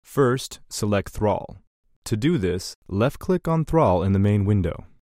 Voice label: Generic Narrator Voice; War3